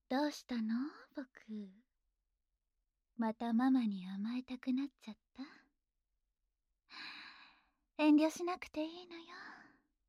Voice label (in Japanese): フリートーク, ASMR; 保育園監獄; ママ先生; SEなし